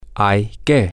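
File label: Spanish, male; it is necessary (to)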